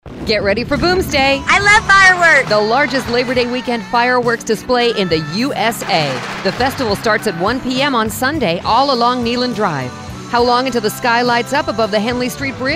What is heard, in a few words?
Promo; WNOX; Boomsday